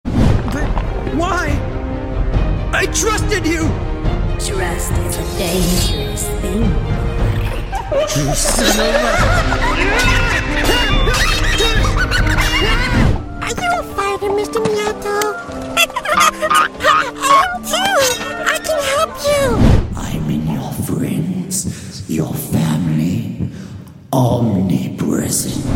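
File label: anime voice over; courage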